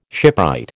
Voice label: "shipwright", American